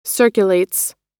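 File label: "circulates", American English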